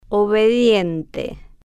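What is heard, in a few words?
"obediente", Spanish, female, slow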